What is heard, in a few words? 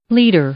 "liter", English; i-ɪ; noun